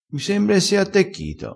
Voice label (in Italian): "attecchi", crespinese